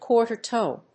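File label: "Quarter tone", English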